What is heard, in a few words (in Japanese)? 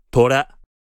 "虎" kunyomi, Japanese